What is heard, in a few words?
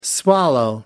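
"swallow", English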